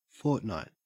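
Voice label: "fortnight" Australian English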